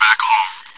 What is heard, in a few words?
chitchat, bot, voice commands; svencoop